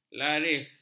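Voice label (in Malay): "laris", Malay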